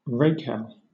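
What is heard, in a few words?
"rakehell", British English; pronunciations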